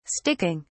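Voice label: "sticking", British English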